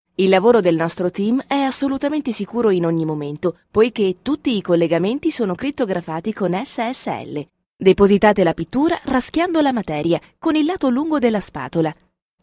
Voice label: Italian F Narration; voice over